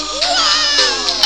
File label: whoaaa